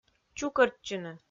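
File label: "чукӧртчыны", Komi-Zyrian, female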